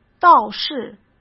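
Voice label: "倒是", Mandarin; chinese flashcards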